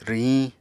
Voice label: "rwhiñ", Apatani